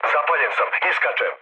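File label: Serbian on fire mood low, speech; War Thunder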